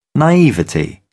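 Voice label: "naivety", British English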